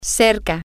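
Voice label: Spanish, female; close To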